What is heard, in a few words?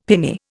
"pinnae", Indian; Dictionary